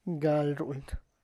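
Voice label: reads, Tlacochahuaya Zapotec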